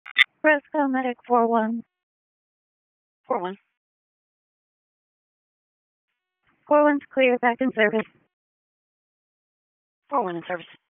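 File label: EMS DISP, radio; wake; p25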